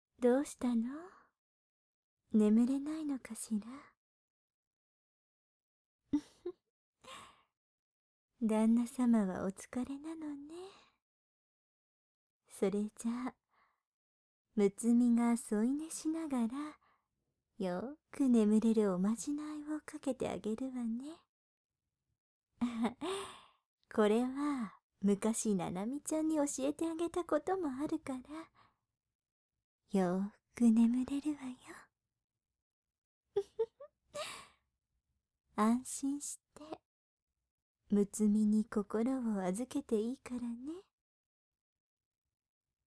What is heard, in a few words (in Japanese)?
安眠誘導（BGMあり）; 安眠誘導